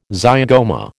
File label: "zygoma", American